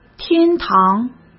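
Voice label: "天堂", Mandarin Chinese; hsk; chinese-flashcards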